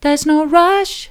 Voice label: theres no rush soft; vocal